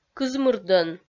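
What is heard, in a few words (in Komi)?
"кызмырдӧн", Komi, female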